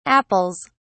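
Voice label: "apples", British English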